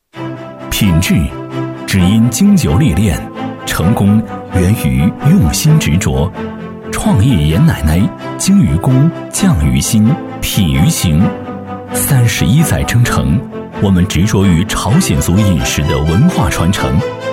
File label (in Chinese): 男 加盟宣传片; 延奶奶餐饮